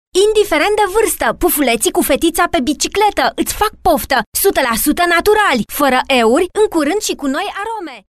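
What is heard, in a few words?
Romanian, female, commercial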